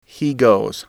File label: "he goes", english